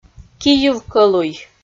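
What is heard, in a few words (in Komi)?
"кийыв кӧлуй", Komi, female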